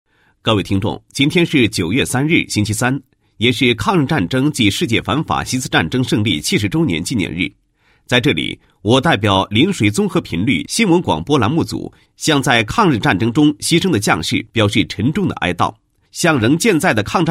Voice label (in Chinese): 男, 新闻; 某县乡新闻联播编排